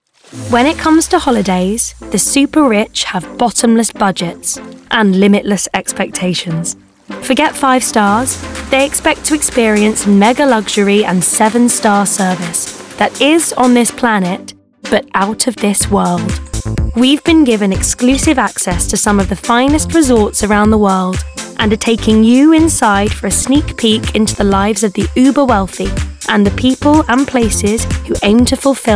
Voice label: Narration